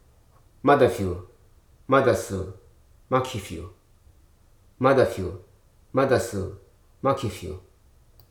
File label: "imperfect", hruso